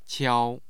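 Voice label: "qiao1", Mandarin Chinese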